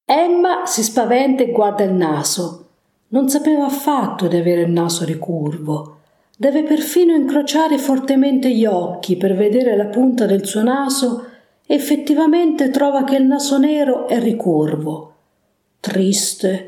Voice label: italienisch

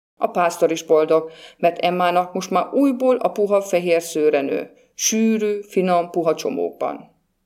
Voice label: ungarisch

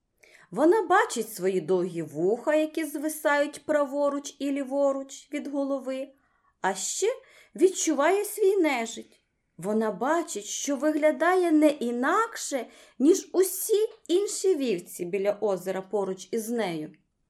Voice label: ukrainisch

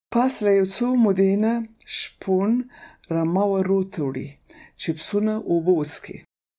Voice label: paschtu

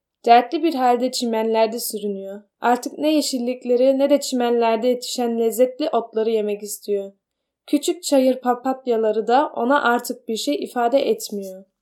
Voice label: türkisch

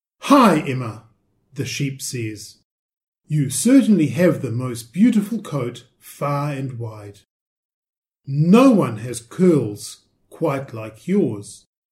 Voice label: englisch

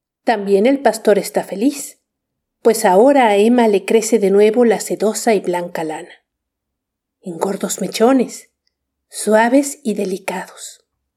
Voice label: spanisch

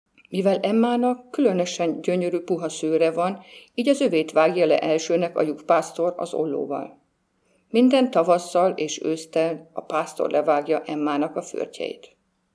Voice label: ungarisch

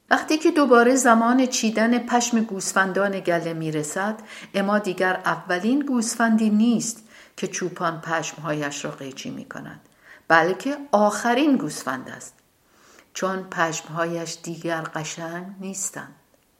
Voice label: farsi

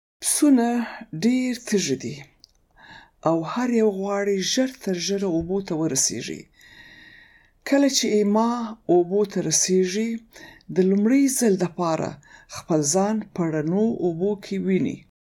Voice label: paschtu

